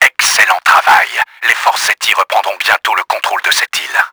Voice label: BEACH HELD WELL, french; Battle Engine Aquila; XBOX

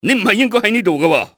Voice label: youre not supposed, cantonese male; XBOX; enemy

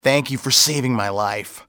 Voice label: thank, english male; XBOX; civ

